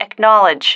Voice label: acknowledge, female

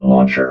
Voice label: "launcher", robot

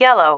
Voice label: "yellow", female

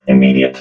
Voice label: "immediate", robot

